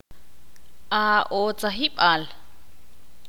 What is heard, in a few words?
"sabio", Ixil